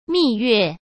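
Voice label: "蜜月", Mandarin Chinese; hsk; chinese-flashcards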